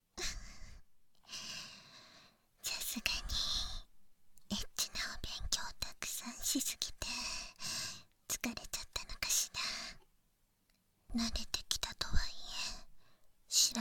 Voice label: 添い寝（バイノーラルマイク 効果音あり大きめ）, ASMR

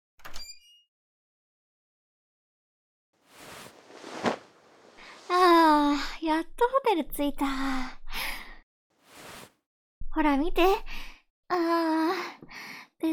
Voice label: 言葉責め; 言葉責め、すき?

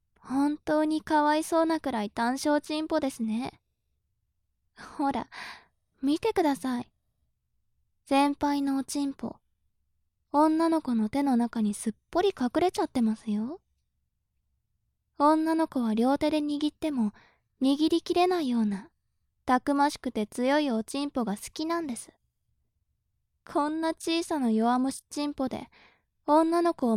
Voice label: 調教中音声, ASMR

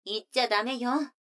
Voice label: 女の子台詞

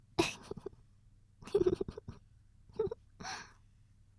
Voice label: 妹 台詞

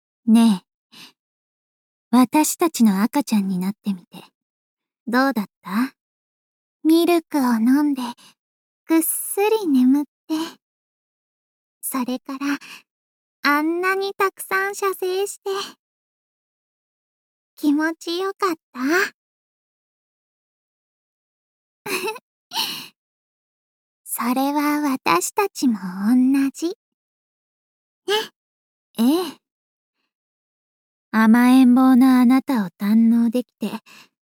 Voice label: 添い寝; パチュリーの赤ちゃんになる魔法; 添い寝しましょ

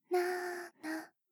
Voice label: ゆっくりカウント

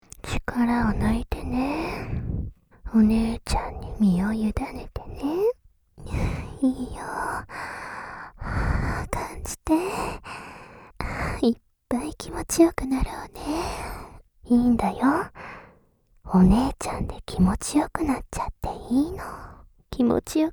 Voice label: お姉ちゃんの「気持ちよくなって」催眠 (右耳)

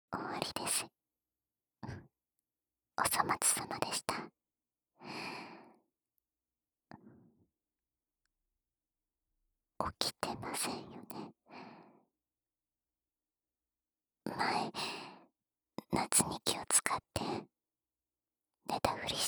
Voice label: 夜の添い寝

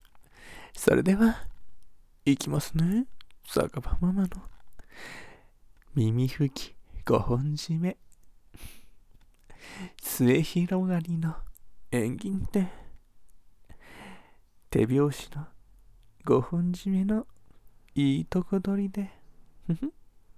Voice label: ASMR, 耳ふき, 解説, ゆっくり説明版; 馬のオネエさん; 解説：耳ふき5本締め

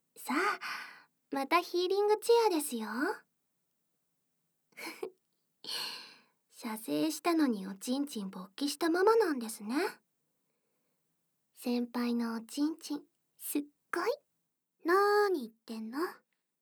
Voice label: 倍音以外のボイスエフェクトなし; アレンジトラック

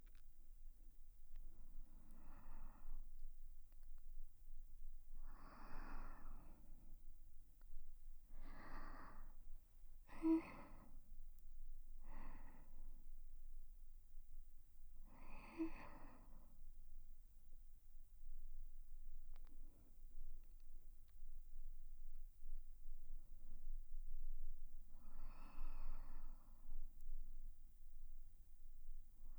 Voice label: 添い寝リピート

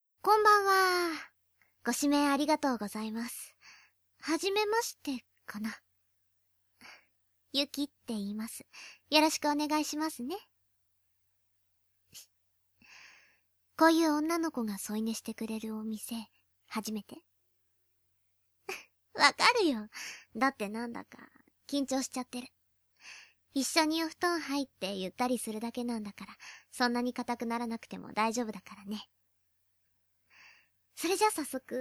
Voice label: 添い寝

